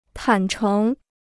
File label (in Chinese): "坦诚", Chinese